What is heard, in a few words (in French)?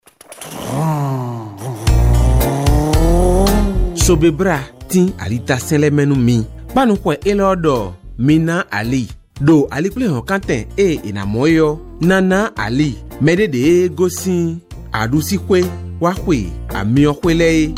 CODE DE ROUTE FON